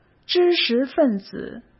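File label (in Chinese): "知识分子", Mandarin Chinese; hsk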